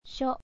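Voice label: "sho", Japanese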